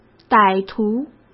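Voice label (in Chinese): "歹徒", Mandarin Chinese; chinese flashcards; hsk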